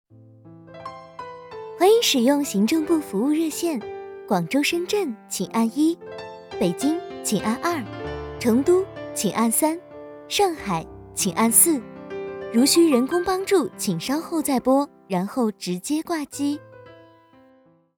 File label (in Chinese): 彩铃; 腾讯总部